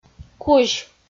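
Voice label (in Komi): "кузь", Komi-Zyrian, female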